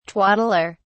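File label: "twaddler", English, British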